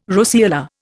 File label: "roseola", Indian